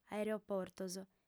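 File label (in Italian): "aereportos", sarda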